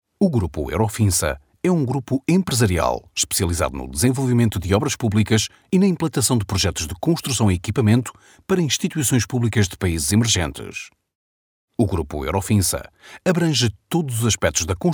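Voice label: Portuguese corporate, portugisisk portugal mann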